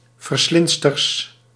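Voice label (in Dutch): "verslindsters", Dutch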